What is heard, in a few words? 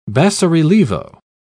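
"basso-relievo", British English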